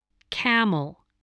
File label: "camel", spelling